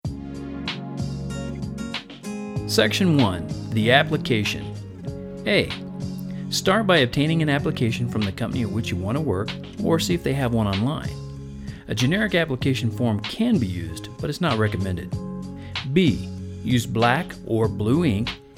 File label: Voice Demo